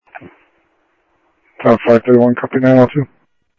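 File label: Lakes Sheriff Lake Patrol, scanner audio trunk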